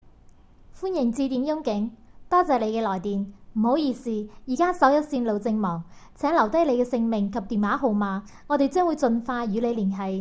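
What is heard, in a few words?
canton chn, female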